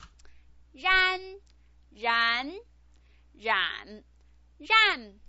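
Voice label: "ran", Mandarin Chinese pinyin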